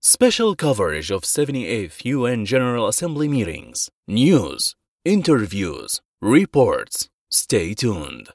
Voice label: arabic podcasts

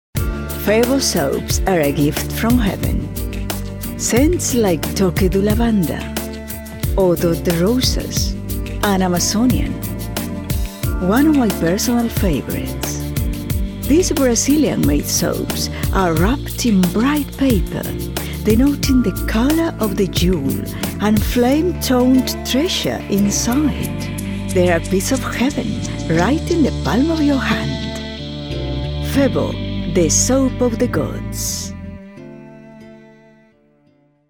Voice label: english british promos

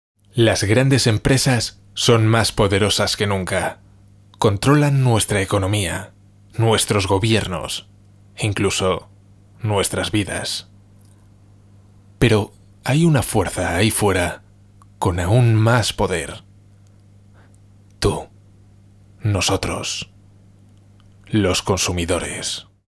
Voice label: spanish documentaries

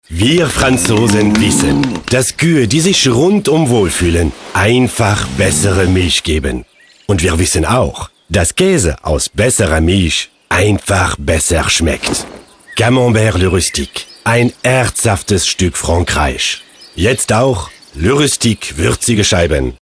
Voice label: German, german voice actors

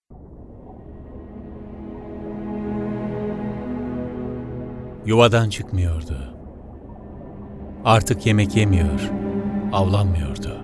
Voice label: turkish documentaries

